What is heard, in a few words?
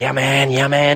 "yeah man yeah man", voice